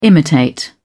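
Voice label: "imitate", British English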